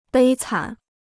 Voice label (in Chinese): "悲惨", Chinese